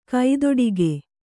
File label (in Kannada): "ಕೈದುಡುಕು", Kannada